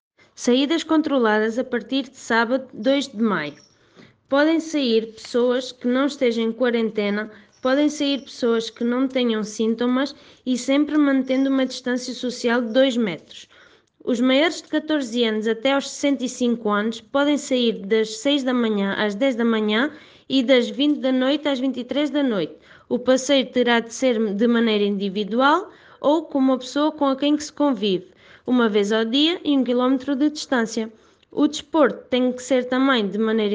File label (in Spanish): portugues; Tejiendo Redes